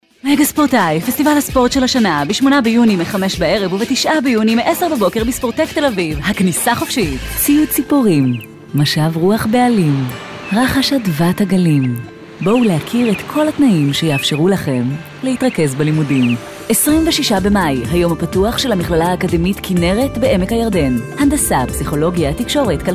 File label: female hebrew voice over sales